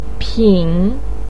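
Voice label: "pin", Mandarin pinyin, tone 3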